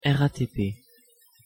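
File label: "RATP", pronunciation, French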